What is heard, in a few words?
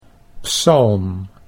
"psalm", Dutch